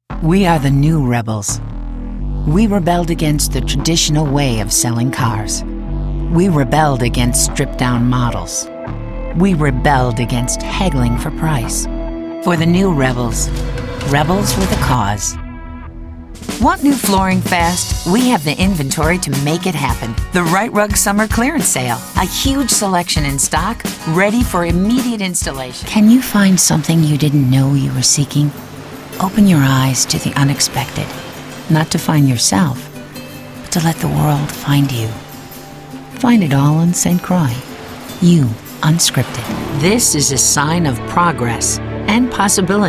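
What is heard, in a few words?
commercial, Voiceover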